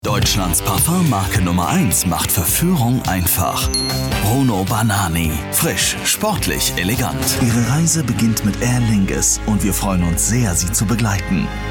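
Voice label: German, Commercial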